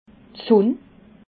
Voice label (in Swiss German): "sunn", alsace